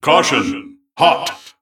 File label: Male Mech Attack